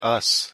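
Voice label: "us", English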